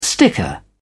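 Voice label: "sticker", British English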